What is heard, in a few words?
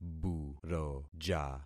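"buroja", concatenated syllables; Affixing experiment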